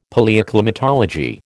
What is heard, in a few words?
"Palaeoclimatology", American